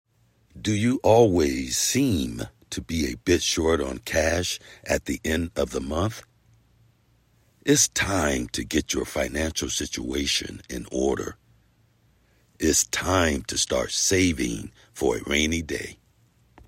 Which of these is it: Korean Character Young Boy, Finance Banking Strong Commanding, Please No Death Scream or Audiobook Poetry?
Finance Banking Strong Commanding